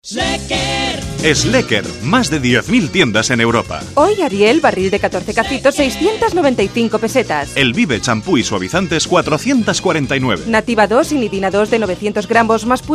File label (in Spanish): Publicidad Radio voces comerciales musica; Schlecker